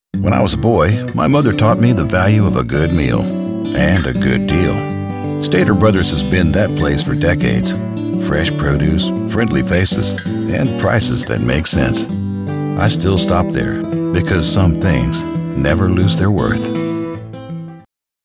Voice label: Radio Commercials, Grocery Store Ad, Older Male Voice Over; Spotify Ad - Grocery Store Ad; Stater Bros.